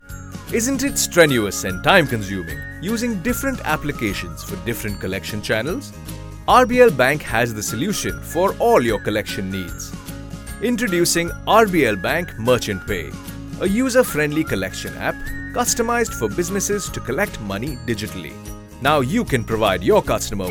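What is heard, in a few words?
explain English; RBL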